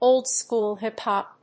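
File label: "Old school hip hop", English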